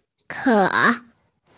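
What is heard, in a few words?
"ke3", Mandarin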